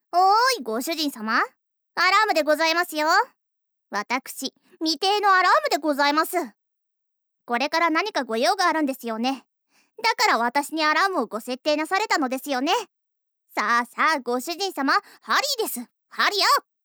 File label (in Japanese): めざまし, めざましぼいす; 宅配少女未定 サヨナラの先に